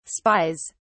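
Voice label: "spies", British English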